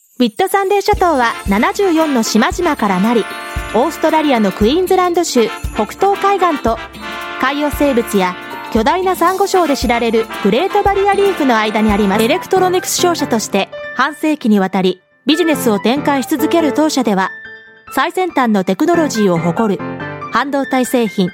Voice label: Japanese Business and Audiobooks